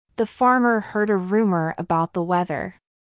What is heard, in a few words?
american R; british vs american